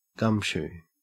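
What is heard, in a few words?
"gumshoe" Australian English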